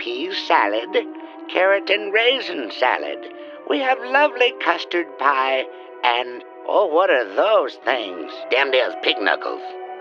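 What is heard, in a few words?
On Hold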